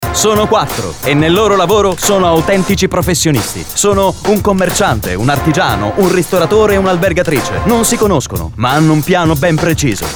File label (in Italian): spot; efficientamento energetico